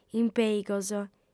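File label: "impèigos", Sardinian, female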